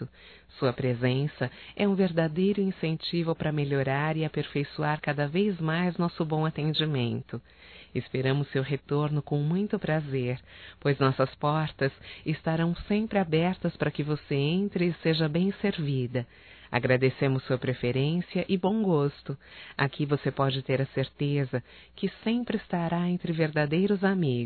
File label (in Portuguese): CLIENTE MéDICA(F); Profissionais; fem; Telemensagens 2017